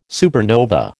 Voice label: "supernova", American